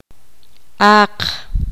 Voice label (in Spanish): poqomam cerdo